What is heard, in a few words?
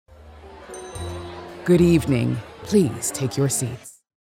Voice of God Live Intro Formal Gender Inclusive